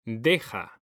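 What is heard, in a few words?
"DEJA", Spanish; mekanta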